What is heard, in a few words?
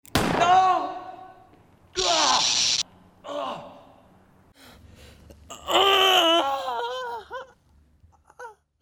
voice deaths